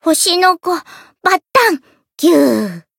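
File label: Voice retire, Japanese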